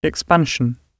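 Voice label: "expansion", British English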